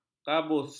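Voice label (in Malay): "kabus", Malay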